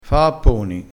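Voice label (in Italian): "fa apponi", vocabolario dialettale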